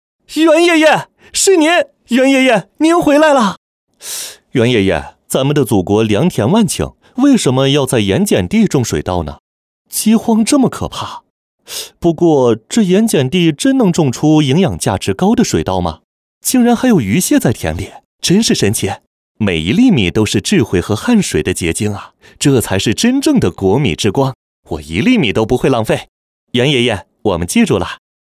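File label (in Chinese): 角色 男 青年 书生; 角色-男27-青年-书生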